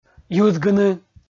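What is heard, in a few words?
"юзгыны", Komi, male; kpv audio dict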